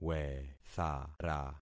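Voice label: "wethara", concatenated syllables; Affixing experiment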